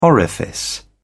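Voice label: "orifice", British English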